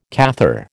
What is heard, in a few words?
"Cathar", American